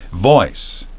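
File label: "voice", English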